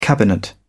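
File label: "cabinet", British English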